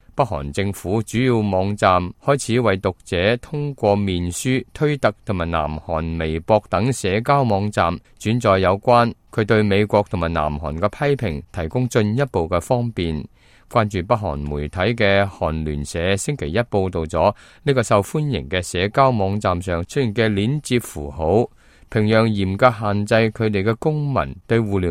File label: cantonese, news